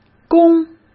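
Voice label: "宫", Mandarin Chinese; hsk; chinese-flashcards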